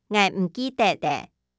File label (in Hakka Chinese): 𠊎毋記得吔, 客語